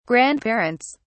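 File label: "grandparents", English, British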